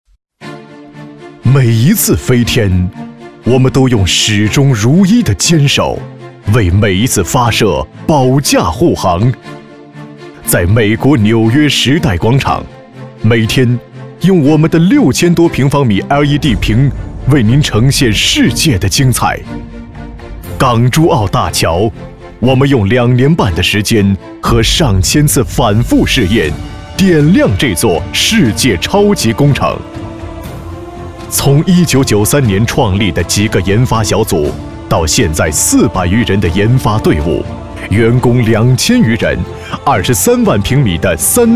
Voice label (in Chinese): 宣传片 企业 力度; 三思集团